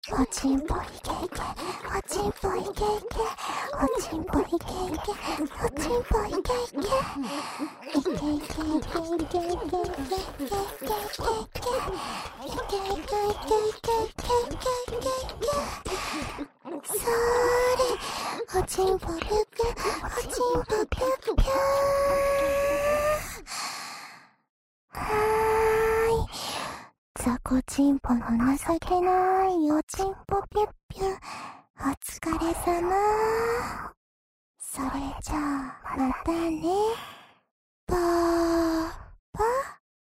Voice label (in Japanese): 射精しちゃえ♪＋Wイラマチオ; おまけループ（オナサポ）; パパのざぁこ♪ざぁこ♪